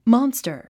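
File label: "monster", English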